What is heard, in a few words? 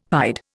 "pied", Indian; Dictionary